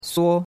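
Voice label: "suo1", Mandarin Chinese; Cours-de-Chinois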